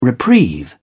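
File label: "reprieve", British English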